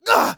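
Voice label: painsharp, German; Scout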